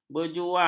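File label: "berjuang", Malay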